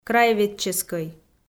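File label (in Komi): "краеведческӧй", Komi-Zyrian, female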